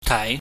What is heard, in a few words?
"tai", pingyam